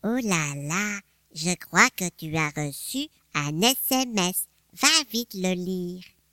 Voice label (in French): voix mignonne